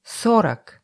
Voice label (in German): russische zahl 20; russische zahl 40; Zahlen auf Russisch